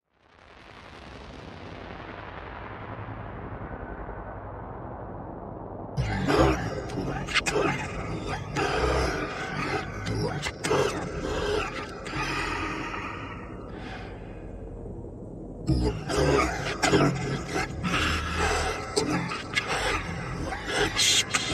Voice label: Devil Satan Voice